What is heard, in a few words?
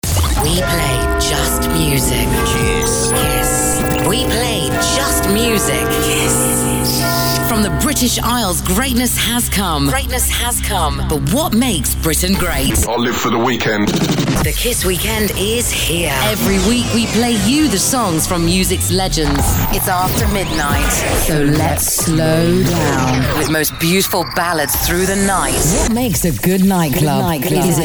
STATION IMAGING